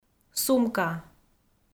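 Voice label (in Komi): "сумка", Komi, female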